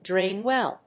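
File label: "drain well", English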